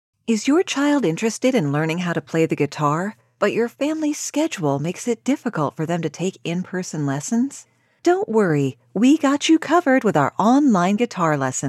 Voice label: English (US), Female